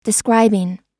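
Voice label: "describing", US English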